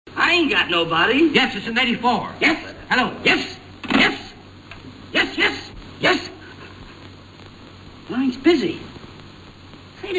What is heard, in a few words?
phonecall; (Yes, Yes, Yes Yes The Line Is Busy, Hey Theirs Nobody On Your Line. Yeah But I'm Expecting A Call) Curly & Moe; Higher Than A Kite (1943)